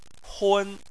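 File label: "hun", Chinese, first tone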